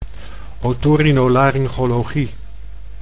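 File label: "otorinolaryngologie", Dutch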